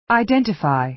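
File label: "identify", American English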